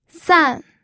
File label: "san4", pinyin